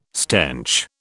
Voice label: "stench", British